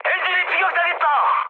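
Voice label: Korean damaged engine mood high; War Thunder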